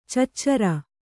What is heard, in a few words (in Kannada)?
"ಚುಚುರು", Kannada